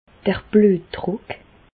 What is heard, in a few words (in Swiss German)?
"bluetdruck", Bas Rhin; medecine; tension artérielle